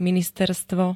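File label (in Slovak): "ministerstvo", Slovak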